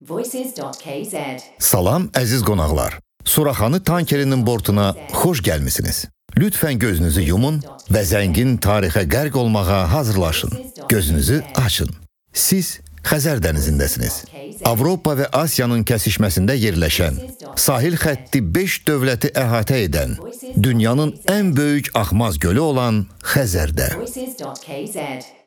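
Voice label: Voice Azerbaijani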